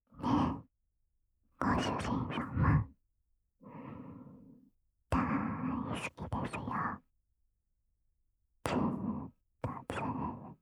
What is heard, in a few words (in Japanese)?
キャスト フリートーク